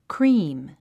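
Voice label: "cream", English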